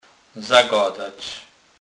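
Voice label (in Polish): "zagadac", Kurpie dialect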